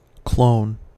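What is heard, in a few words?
"clone", US English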